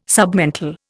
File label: "submental", Indian